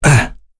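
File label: Vox Landing, voices heroes English; Kain; kingsraid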